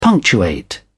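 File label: "punctuate", British English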